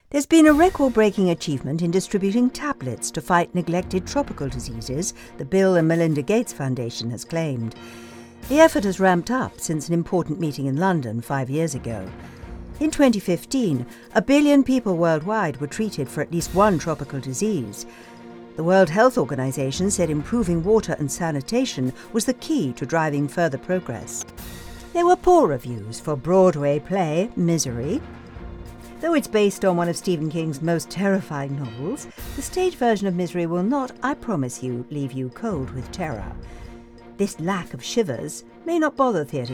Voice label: news